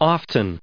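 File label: "often", US English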